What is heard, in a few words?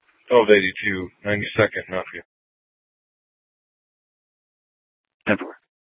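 police dispatch, Scottsdale PD; Simulcast H- Scottsdale; Nth Dispatch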